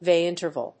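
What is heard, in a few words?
"H-V interval", English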